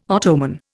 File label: "Ottoman", Indian